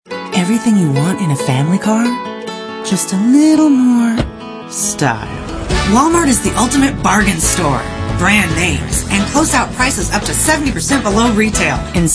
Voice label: female 30s 40s